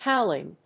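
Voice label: "howling", English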